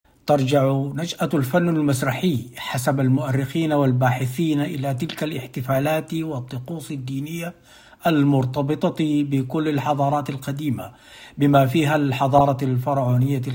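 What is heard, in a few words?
Arabic, General; GoLocalise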